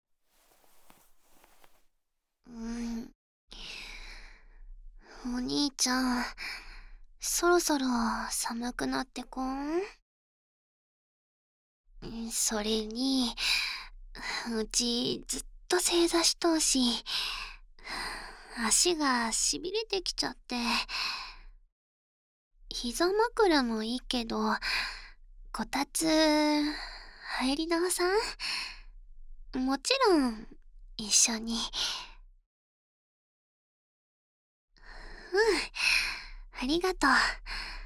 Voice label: 炬燵で添い寝; うち…お兄ちゃんのこと、ばり好いとーよ！〜実家に帰ると、いとこに物凄く癒されちゃった件〜

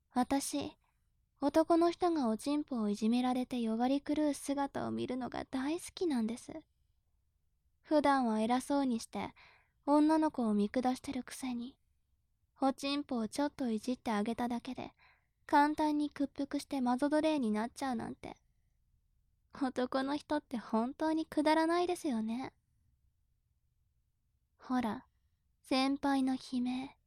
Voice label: 調教中音声, ASMR

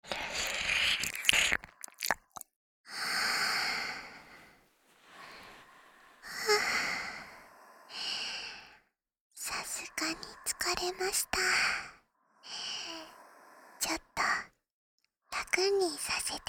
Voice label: おやすみ, ASMR; 川の字でおやすみ♪

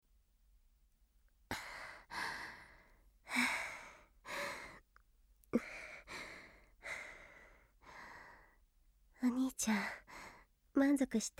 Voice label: 添い寝

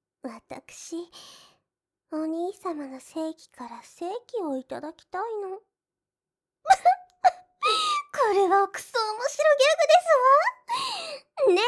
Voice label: 面白 ギャグ

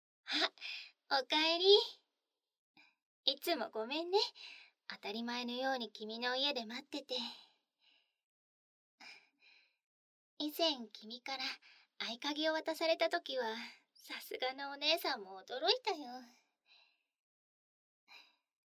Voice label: お姉さん 赤ちゃん言葉; お姉さんとの共依存生活

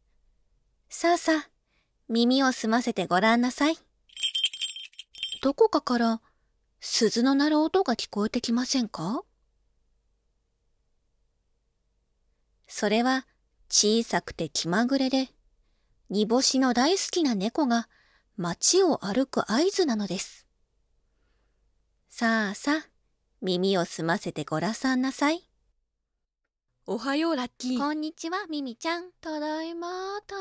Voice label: 猫 の 街 へ, 朗読, BGM あり

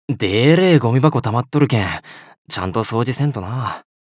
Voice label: ゴミ箱を空にする, 岡山弁; 樹; DL版おまけ・システムボイス

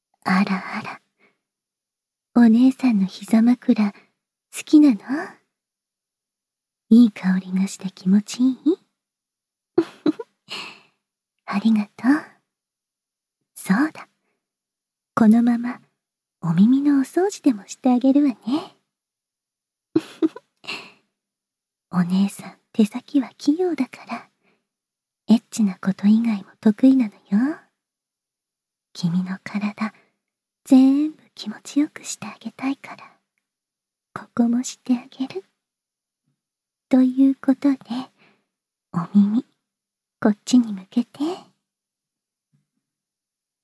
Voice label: あまあま耳かきで甘やかし（バイノーラル編集 効果音あり大きめ）, ASMR

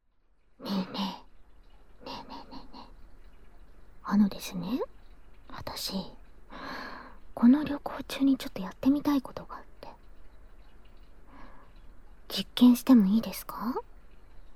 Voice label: 耳舐め実験でヌキヌキ; 放送局本編; 反転

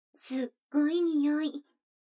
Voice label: 女の子台詞

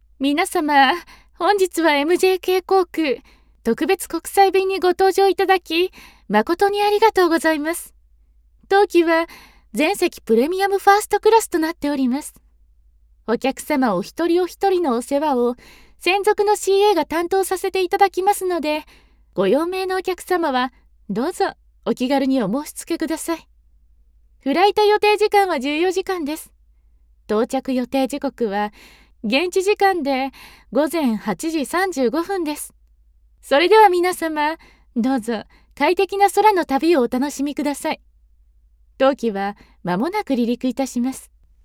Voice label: アナウンス; 完璧系お姉さんCA

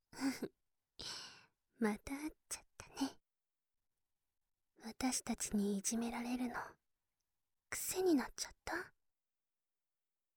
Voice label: 効果音なし 耳舐め ささやき 乳首責め; 逆痴漢 ３日目 姉妹からの提案

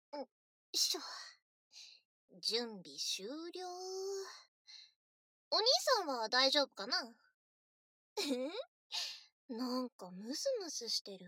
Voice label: 耳かきで気持ちよくしてあげるね, ASMR

